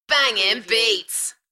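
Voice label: UK female, Enthusiastic, voice drop; Banging beats; beanstalk audio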